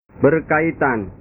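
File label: "berkaitan", Indonesian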